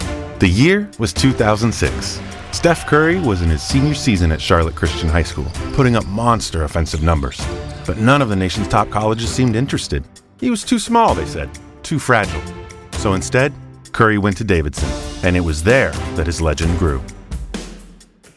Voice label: Narration